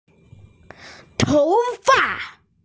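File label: "hair", Mandarin, hearing-impaired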